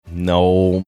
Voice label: disappointed no

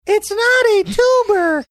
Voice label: saying it's not a tuber funny

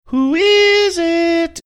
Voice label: saying who is it sing songy

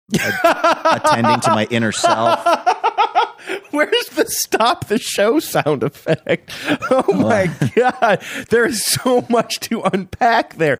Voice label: laughing saying where is the stop the show sound

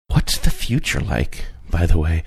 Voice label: whispering

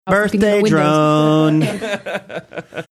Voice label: saying birthday drone sing songy

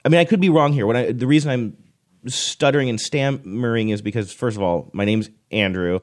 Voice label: stuttering stammering

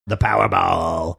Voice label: menacing; the powerball

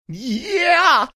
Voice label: saying yeah funny

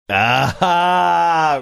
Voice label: drawn out aha

